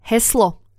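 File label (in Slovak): "heslo", Slovak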